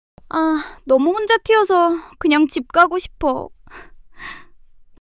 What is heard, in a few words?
shy; monologue